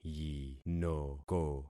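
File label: "yinoko", concatenated syllables; Affixing experiment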